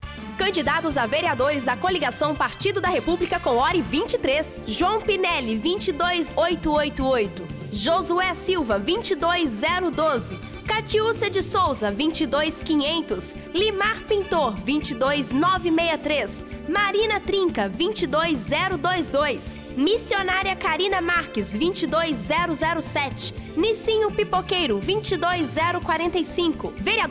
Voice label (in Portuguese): SPOT; PARTIDU DA REPUBLICA